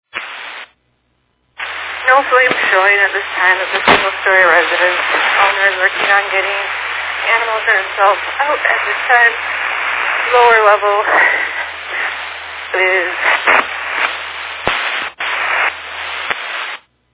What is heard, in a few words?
Fire Dispatch